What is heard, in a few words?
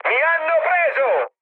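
Italian aircraft destroyed mood high, speech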